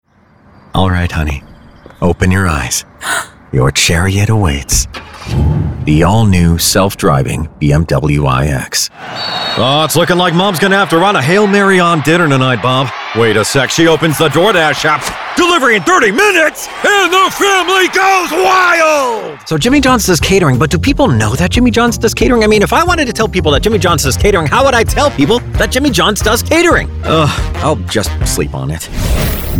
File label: Commercial